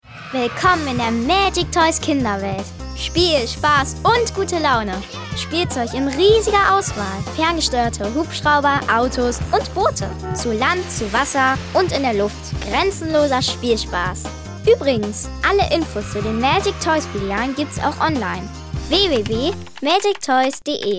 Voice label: Werbung Spielzeug

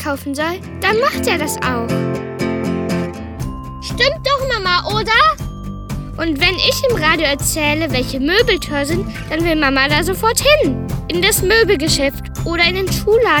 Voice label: Werbung fuer Kinderstimmen

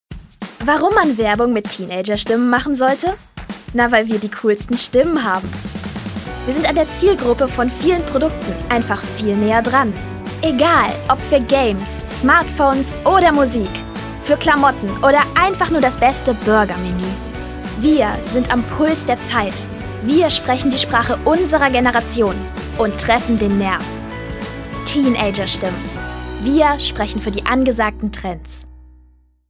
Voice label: Werbung

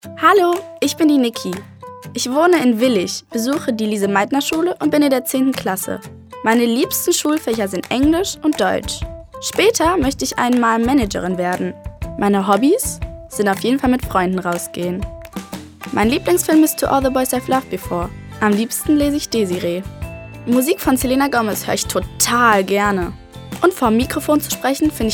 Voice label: Selbstvorstellung DE

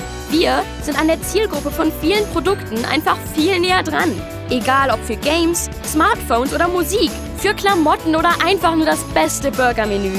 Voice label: Werbung

